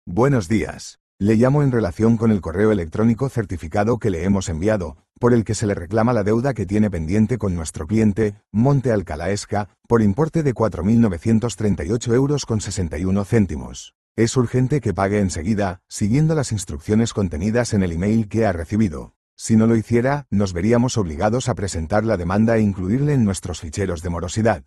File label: audio call